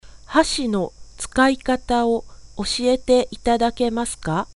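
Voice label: Japanese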